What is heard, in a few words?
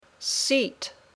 "seat", English